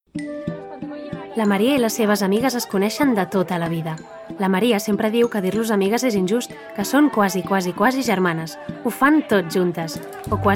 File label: female catalan comercial